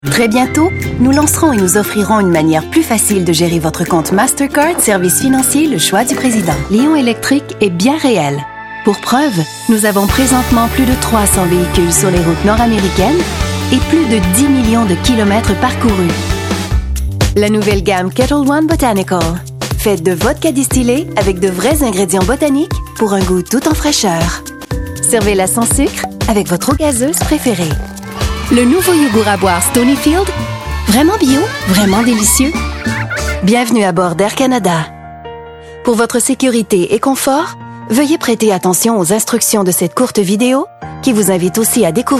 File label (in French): Voix Off